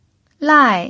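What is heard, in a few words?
"lai", Mandarin Chinese pinyin, tone 4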